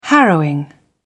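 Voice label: "harrowing", British English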